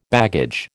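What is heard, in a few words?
"baggage", American English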